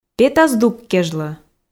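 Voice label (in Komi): пета здук кежлӧ, Komi, female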